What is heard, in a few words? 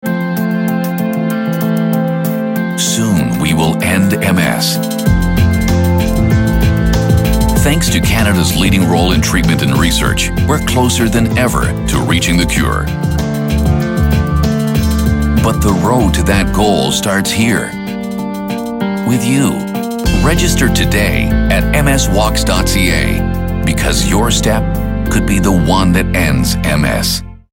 TV Commercials